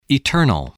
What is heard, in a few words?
"eternal", voice, english